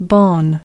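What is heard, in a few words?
"ban", English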